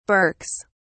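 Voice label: "berks", English, British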